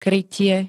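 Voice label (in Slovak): "krytie", Slovak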